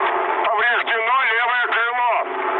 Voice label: left wing, mood med, speech ru; War Thunder